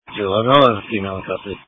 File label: Sheriff Dispatch